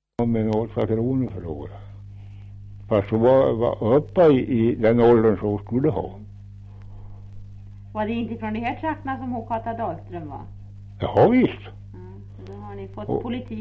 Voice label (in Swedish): Kopparberg, dialekter; Folkminnen